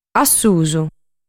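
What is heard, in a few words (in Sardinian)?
"Assusu", Sardinian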